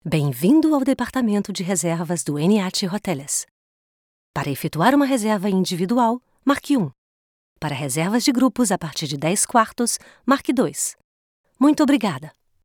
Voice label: portugiesisch telefon

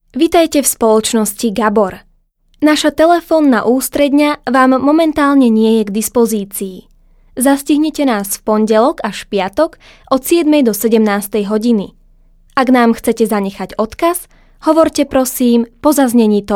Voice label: telefon, slowakisch, sprecher

